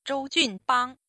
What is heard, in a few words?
mandarin